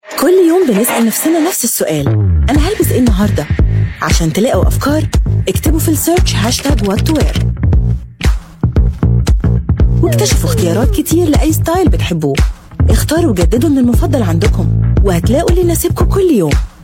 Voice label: arabic voice over female commercial